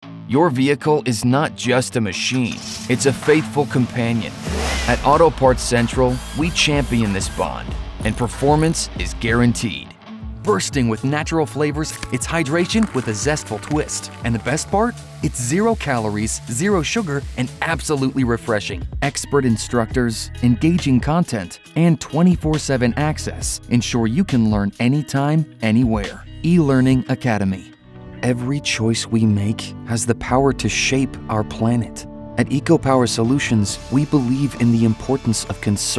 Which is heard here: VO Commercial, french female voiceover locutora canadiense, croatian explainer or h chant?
VO Commercial